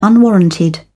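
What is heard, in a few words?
"unwarranted", English, British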